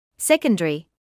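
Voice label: "secondary", British English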